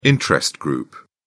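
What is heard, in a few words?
"interest group", English, British English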